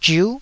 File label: "zhiu9", Taiwanese syllable